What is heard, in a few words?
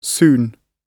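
"soon", British English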